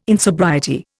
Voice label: "insobriety", Indian